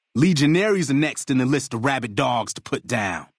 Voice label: male adult dialogue greeting